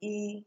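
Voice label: "e" Nigerian Pidgin, female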